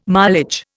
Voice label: "mileage", Indian

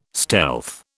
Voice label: "stealth", British

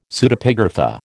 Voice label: "Pseudepigrapha", American

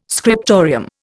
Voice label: "scriptorium", Indian

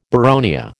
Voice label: "boronia", American

